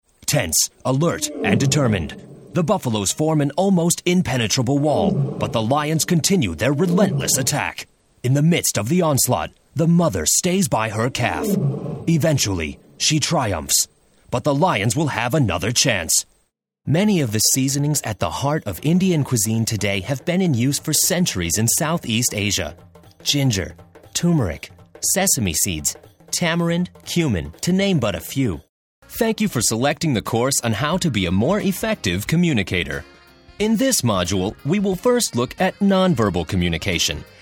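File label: American English, narration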